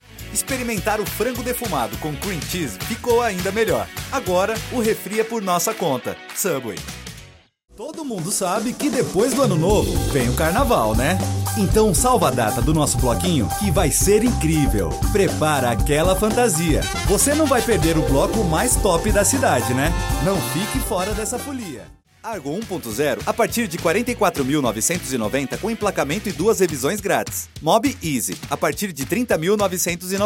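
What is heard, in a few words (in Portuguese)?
locução varejo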